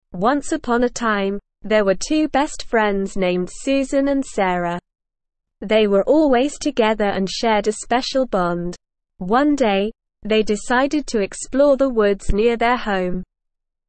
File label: ESL Short Stories for Kids SLOW reading The Magic of Friendship